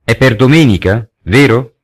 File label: uncertainty